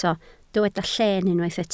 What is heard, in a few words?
"lleen", Welsh